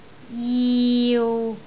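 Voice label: "jiu6", Cantonese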